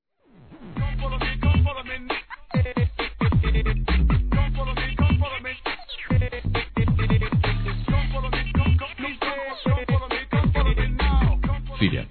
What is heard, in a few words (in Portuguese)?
Masculino, Telemensagens Aniversários; Filha Criança; Telemensagens 2017; Aniv Familiar